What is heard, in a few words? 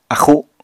"axu", Apatani